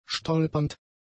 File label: "stolpernd", German; adjectives